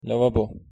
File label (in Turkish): "lavabo", Turkish